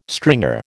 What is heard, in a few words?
"stringer", American